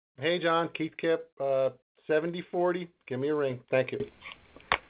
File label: Voice Message